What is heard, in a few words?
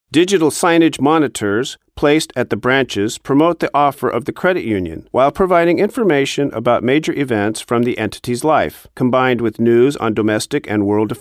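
English American male multimedia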